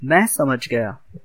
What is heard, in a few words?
understand, hindi